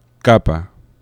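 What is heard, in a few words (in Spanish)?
"capa", Spanish; laboratori fonetica; oclusives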